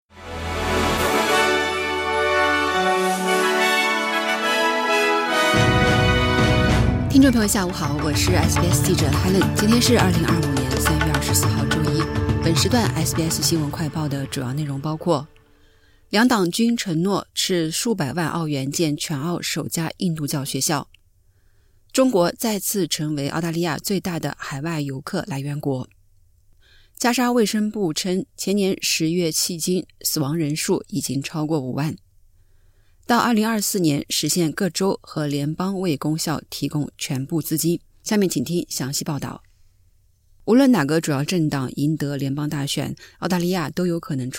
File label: mandarin newsflash; 两党均承诺投入数百万澳元建全澳首家印度教学校; SBS Chinese; SBS新闻快报